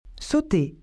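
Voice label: "sauter", French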